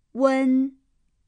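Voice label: "un", Chinese; un(uen)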